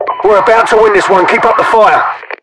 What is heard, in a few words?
winning, UK; mpvoice